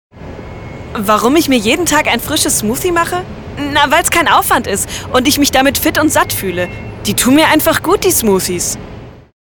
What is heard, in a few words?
Testimonial